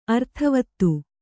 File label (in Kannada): "ಅರ್ಥವಾದ", Kannada